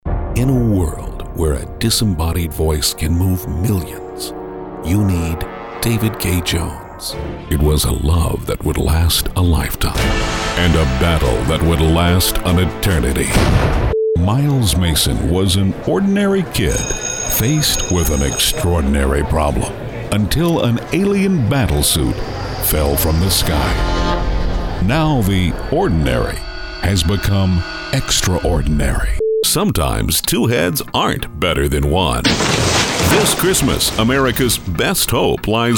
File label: Movie Trailer